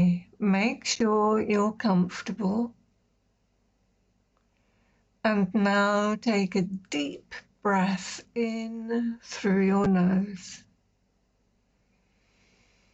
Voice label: Quick Meditation